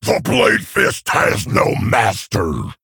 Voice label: Male Fel Orc Play